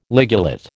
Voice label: "ligulate", American